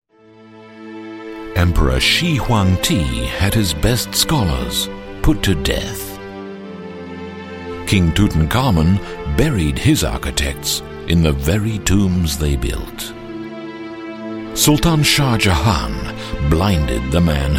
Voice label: Mid Atlantic AU English Cook Book, Australian, Male